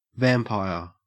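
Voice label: "vampire", Australian English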